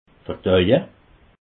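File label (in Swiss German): "verdaue", Alsatian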